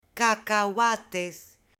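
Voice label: "cacahuates", Spanish, female; AppLenguaje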